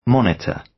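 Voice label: "monitor", British English; Crime and punishment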